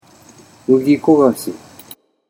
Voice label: "mugikogashi", Japanese